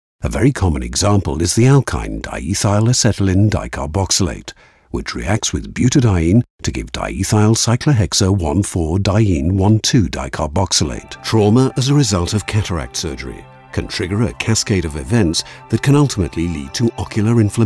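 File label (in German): British English, Freundlich; Fremdtake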